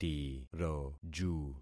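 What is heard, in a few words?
"tiroju", concatenated syllables; Affixing experiment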